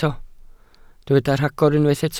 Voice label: "rhagor", Welsh